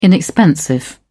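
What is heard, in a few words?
"inexpensive", British English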